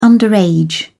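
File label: "underage", British English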